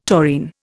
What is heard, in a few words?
"taurine", Indian